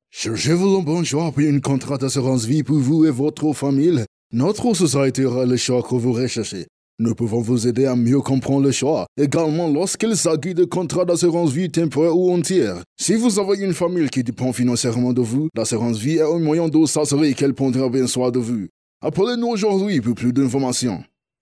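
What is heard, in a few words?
African French, male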